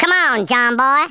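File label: "hurry" spoken, Redneck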